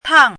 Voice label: "tang4", chinese voice

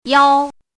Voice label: "yao1", Chinese

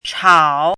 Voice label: "chao3", Chinese

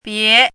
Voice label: "bie2", Chinese; chinese-voice

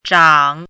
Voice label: "zhang3", Chinese; chinese-voice

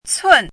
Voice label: "cun4", Chinese

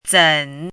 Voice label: "zen3", Chinese; chinese-voice

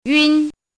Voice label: "yun1", Chinese; chinese-voice

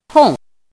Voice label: "tong4", chinese voice

